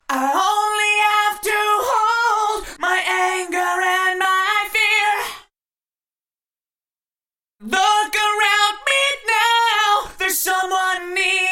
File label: Eventide H910 Vox Default